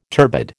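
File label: "turbid", American English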